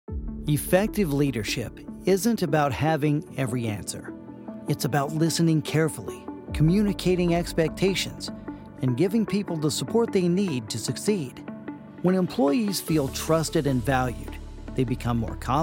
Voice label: eLearning Narration